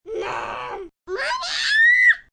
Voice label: Mommy Squeal, child, girl, screaming; Kids